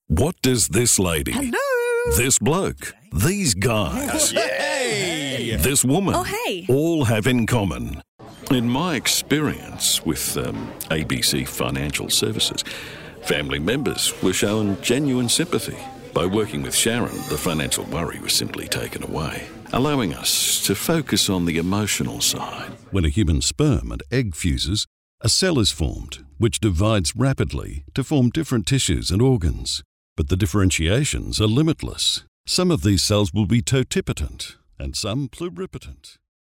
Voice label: Conversational

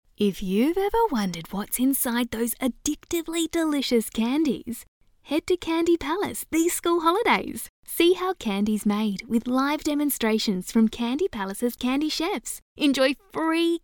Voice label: Warm Friendly

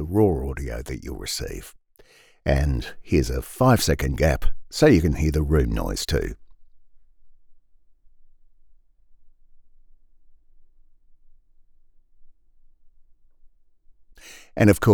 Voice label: STUDIO SOUND CHECK

